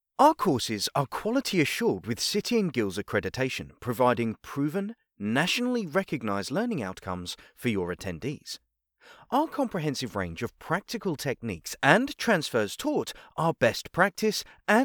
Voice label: english british corporate videos